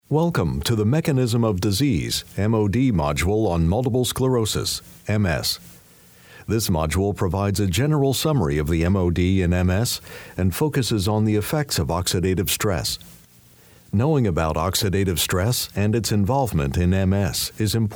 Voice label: Narration, Medical, Male

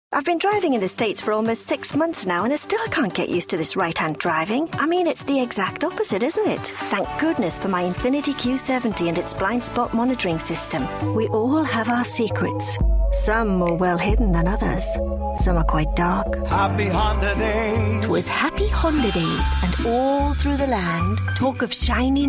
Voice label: Commercial British Female; International Voiceover, British, Narration, eLearning

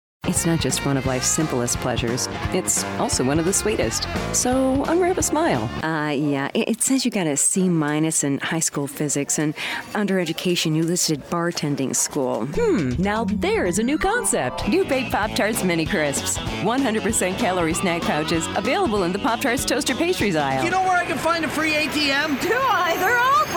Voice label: Commercial Female

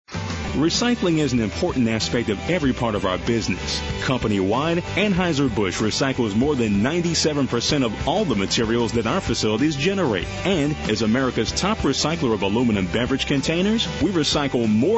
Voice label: Commercial Male, Commercial Voiceover

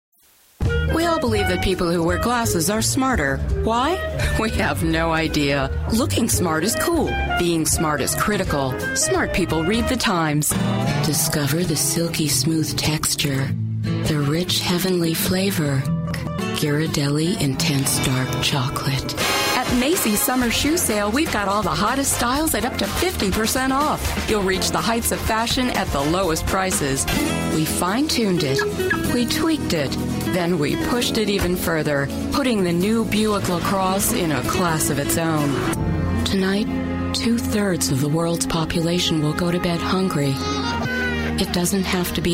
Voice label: Commercial Female, Charming, Dramatic, Engaging

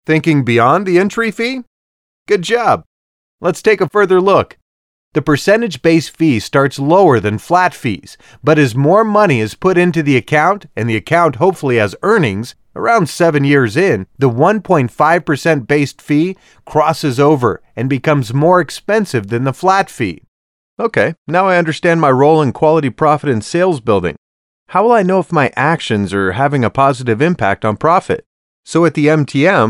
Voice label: eLearning Male

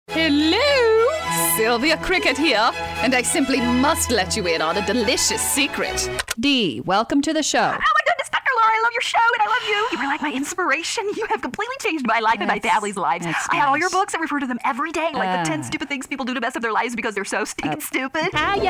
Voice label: characters female

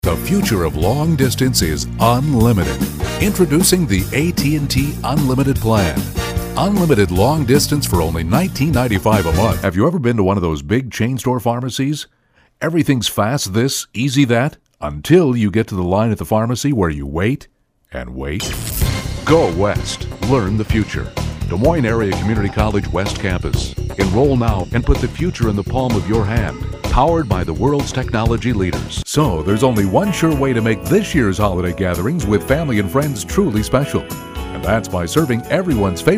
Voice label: Commercial Male

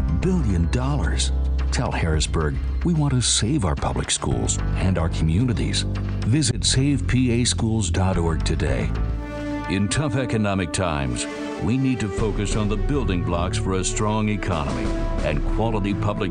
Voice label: Political, Male

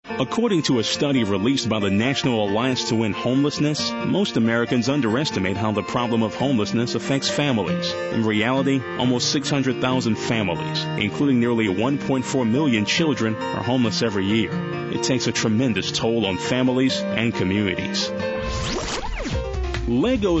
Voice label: Narration Male; Narration, Corporate

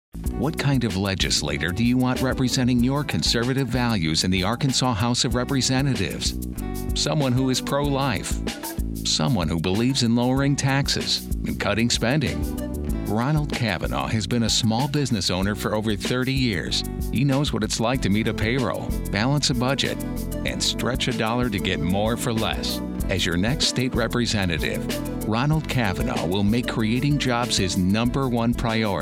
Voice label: Commercial Male

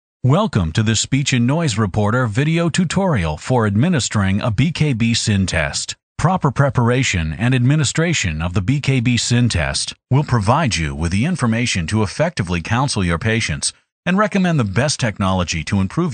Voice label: eLearning Male

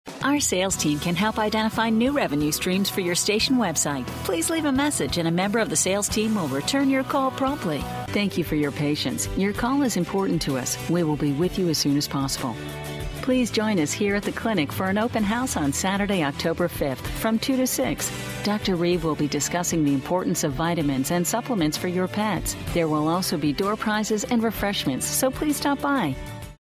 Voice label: Phone Hold IVR, Female

